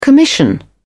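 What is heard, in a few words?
"commission", English, British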